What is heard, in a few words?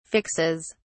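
"fixes", British English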